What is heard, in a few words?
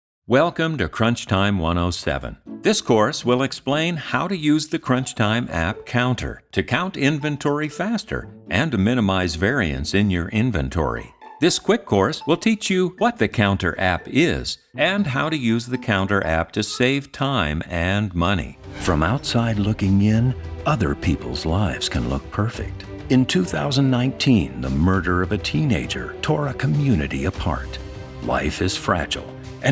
Narration